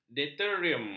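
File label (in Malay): "deuterium", Malay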